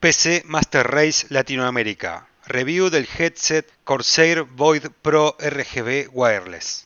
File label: TEST VOID PRO RGB